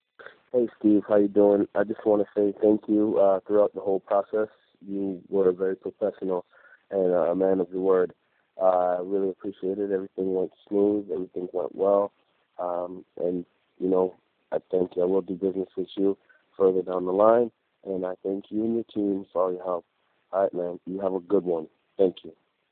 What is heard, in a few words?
Voicemail